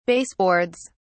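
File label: "baseboards", British English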